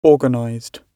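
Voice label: "organized", British English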